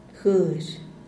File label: "guexh" pueblo; Díaz Ordaz